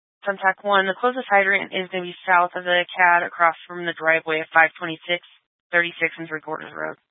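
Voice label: Incident Tactical, scanner audio recording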